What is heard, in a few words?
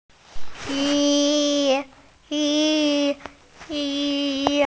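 "ji1", Mandarin Chinese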